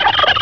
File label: jabber; ANIMALS